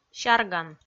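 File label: "сярган" Komi, female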